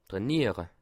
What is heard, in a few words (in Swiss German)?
"trainiere", alsace